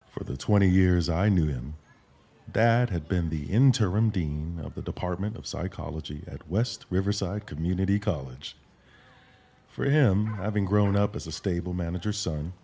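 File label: American English, Audio Book; GoLocalise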